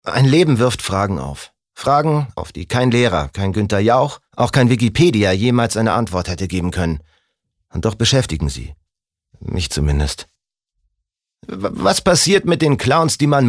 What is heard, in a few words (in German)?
Schauspiel Dynamisch